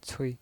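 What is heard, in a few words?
"cui", Mandarin Chinese pinyin voice, neutral tone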